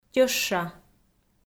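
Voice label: "тьӧща", Komi, female; audio dict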